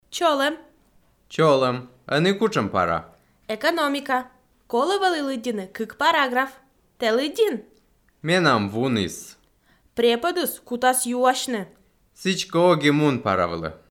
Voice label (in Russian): dialog